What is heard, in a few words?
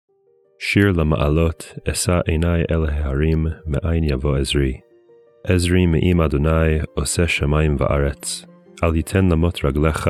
poetry and prayer psalm hebrew